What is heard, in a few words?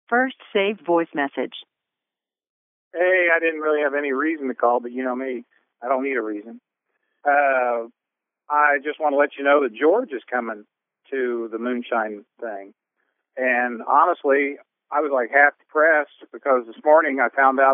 voicemail; Post Moonshine prep